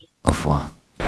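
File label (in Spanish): Voice Acting, Spanish, female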